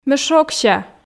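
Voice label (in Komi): "ме шогся", Komi, female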